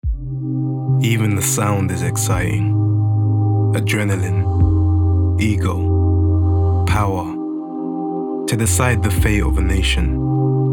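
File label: commercial; stv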